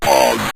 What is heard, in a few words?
"pause", Synth Voice; Kenney's Sound Pack